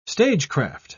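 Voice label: "stagecraft", British English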